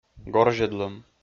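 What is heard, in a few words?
"горзьӧдлӧм", Komi, male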